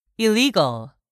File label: "illegal", English; 3000 words